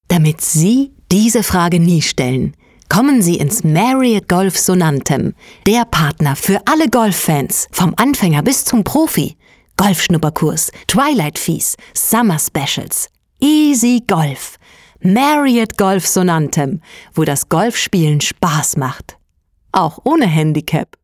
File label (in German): Werbung; Mariott